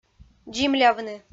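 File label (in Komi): "дзимлавны", Komi, female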